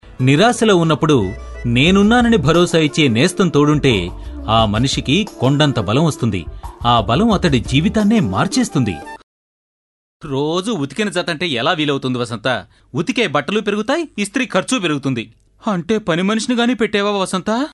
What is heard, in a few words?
Telugu Male